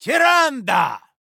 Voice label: INNKEEPER Male Dwarf